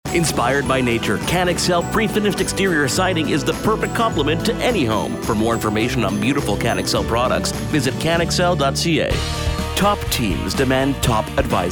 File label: Commercial Demo